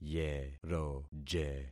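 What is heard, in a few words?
"yeroje", concatenated syllables; Affixing experiment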